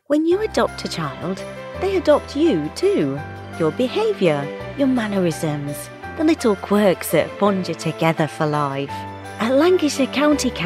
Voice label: Commercial Northern Accent

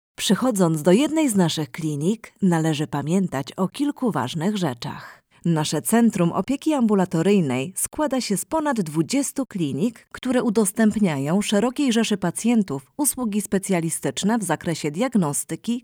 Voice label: Whiteboard Explainer PL

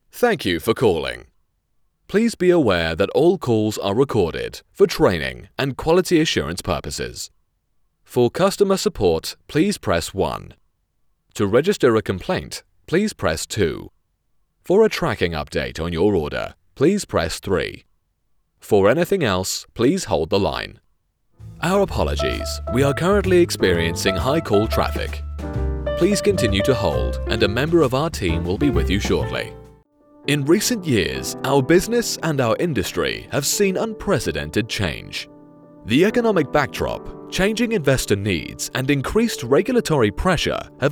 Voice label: Corporate and Professional

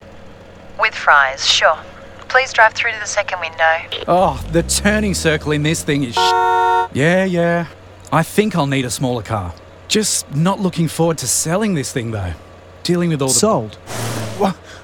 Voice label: Radio Ad Driver

